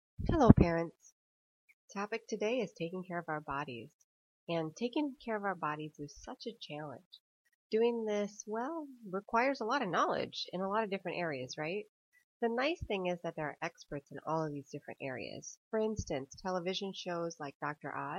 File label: physical health voice